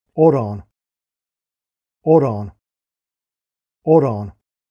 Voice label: "Odhran", Irish